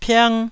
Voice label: "piang1", Taiwanese